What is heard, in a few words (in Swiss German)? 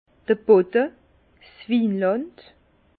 "bodde", Bas Rhin; vin; sol